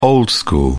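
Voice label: "old school", British English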